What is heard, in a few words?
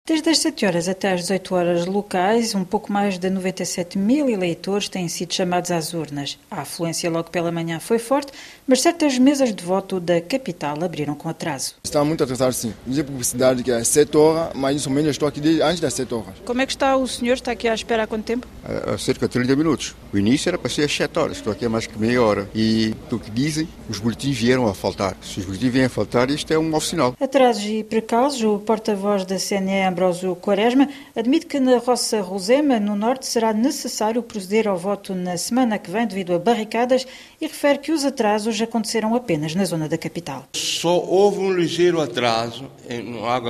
VOX POP; portugais